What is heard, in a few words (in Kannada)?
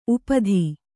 "ಉಪಾಧಿ", Kannada